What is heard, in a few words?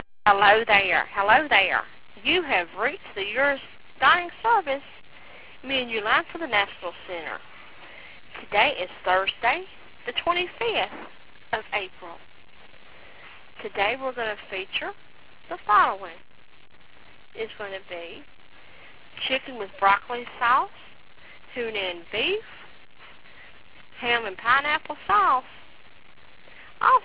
menu, answering machines; phone phun